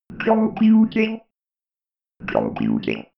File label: robot voice computing